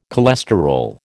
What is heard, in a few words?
"cholesterol", American